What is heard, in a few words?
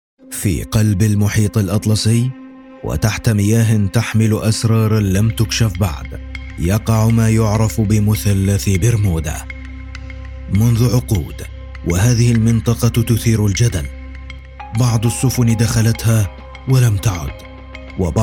documentary